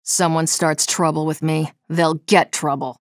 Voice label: menu chatter; nyx; frag